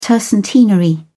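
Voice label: "tercentenary", British English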